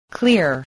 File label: "clear", voice